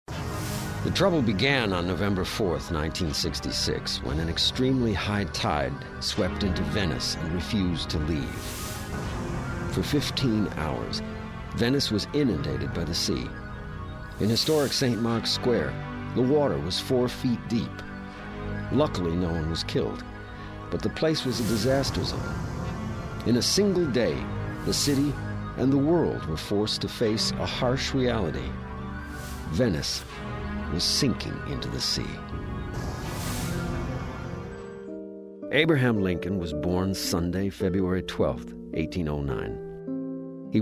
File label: celebrity narration